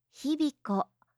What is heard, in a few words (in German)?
"hibiko", japanische maedchennamen